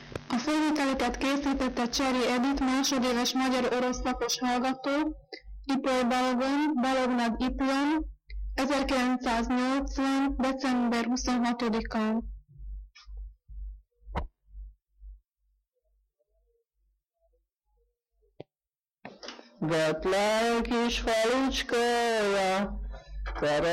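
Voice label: dialect collection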